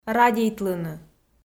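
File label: "радейтлыны", Komi, female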